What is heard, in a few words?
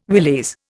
"willies", Indian